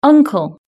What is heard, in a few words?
"uncle", british english